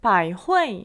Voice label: baihui pronounciation mandarin